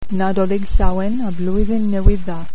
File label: welsh